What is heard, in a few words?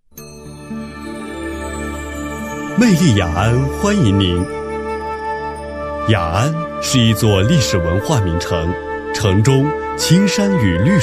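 chinese mandarin male narration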